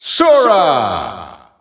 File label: Dutch Announcer; Sora; SSBU